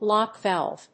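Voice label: "lock valve", English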